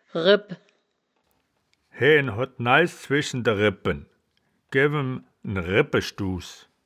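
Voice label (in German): "rebp", Wittlicher Wörterbuch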